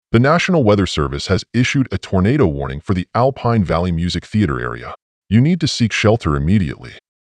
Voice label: NWS Tornado Warning